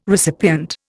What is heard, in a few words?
"recipient", Indian